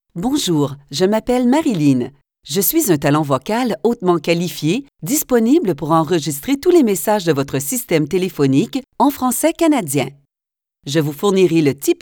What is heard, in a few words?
French Canadian Female